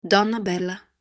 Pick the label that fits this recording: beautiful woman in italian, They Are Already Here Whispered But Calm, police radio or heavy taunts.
beautiful woman in italian